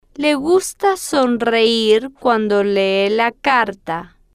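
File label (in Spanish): le gusta sonreir cuando lee la carta, Spanish, female, slow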